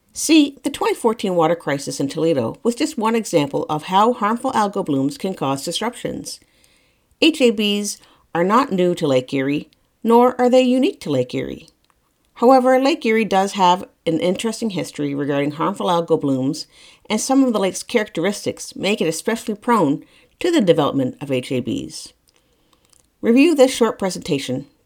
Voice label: narration history